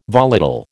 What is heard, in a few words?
"volatile", American